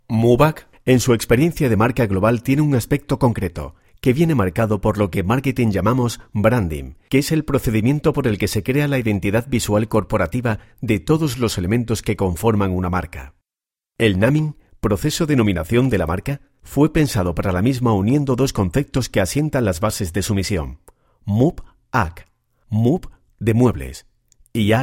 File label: Spanish Spain